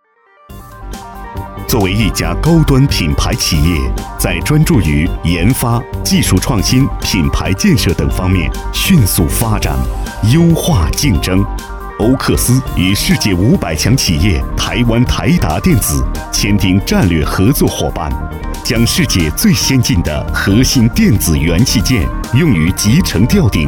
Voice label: 专题 企业 科技, 男国; 欧柯斯

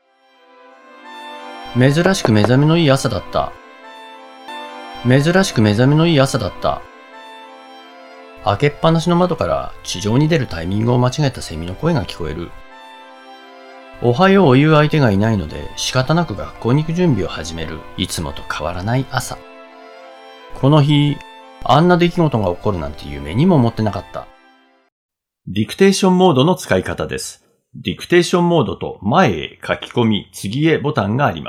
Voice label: 日语男 外语 自然; 小语种

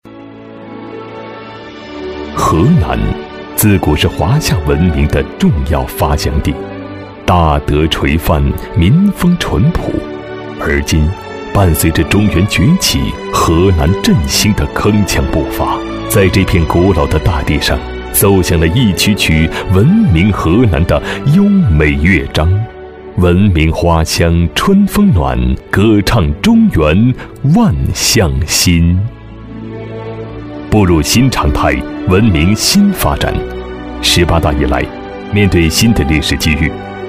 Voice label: 专题 政府 精神文明建设 舒缓, 男国

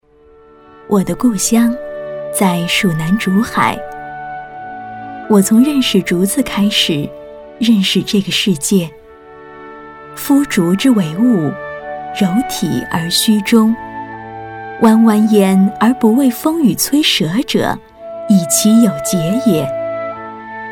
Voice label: 讲述; 产品; 竹子

